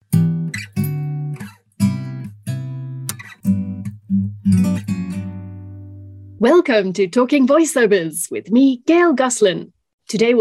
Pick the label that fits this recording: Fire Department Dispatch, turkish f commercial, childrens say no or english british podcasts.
english british podcasts